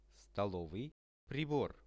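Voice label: "stolovyi pribor", cutlery, Russian; posuda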